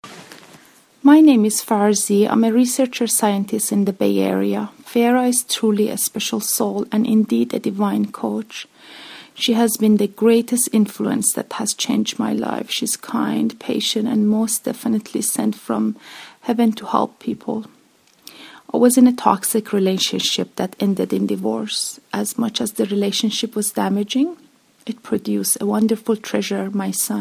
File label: testimonial